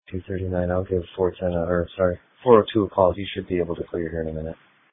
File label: Sheriff Dispatch